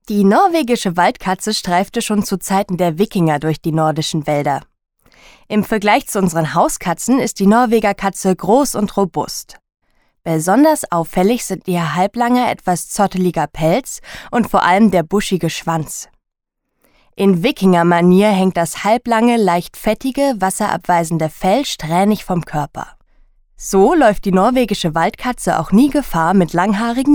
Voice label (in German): dokumentation